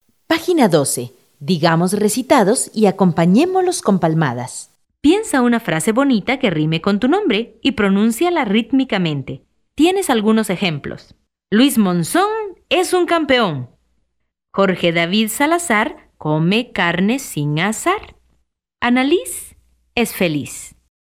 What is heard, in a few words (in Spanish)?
Recitados ritmicos